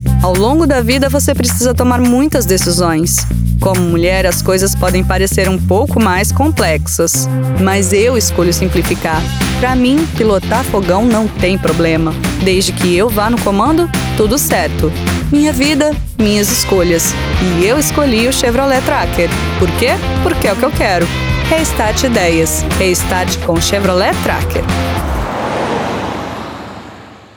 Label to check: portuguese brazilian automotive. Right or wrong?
Right